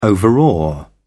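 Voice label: "overawe", British English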